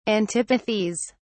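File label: "antipathies", British English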